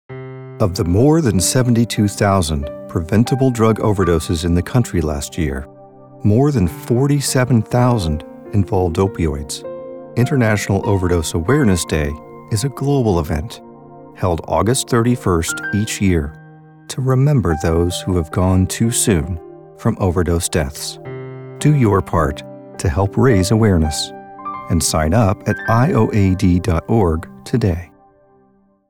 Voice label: voiceover commercial somber

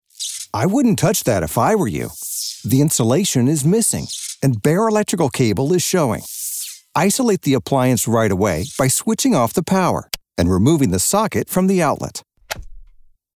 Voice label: voiceover elearning conversational

